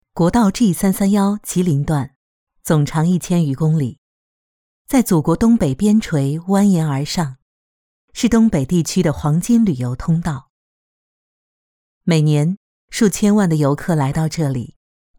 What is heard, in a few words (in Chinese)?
女 纪录片; 醉美331